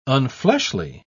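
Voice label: "unfleshly", English, uk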